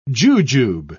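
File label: "jujube", British English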